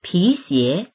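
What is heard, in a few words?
"pi2xie2", Mandarin Chinese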